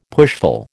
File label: "pushful", American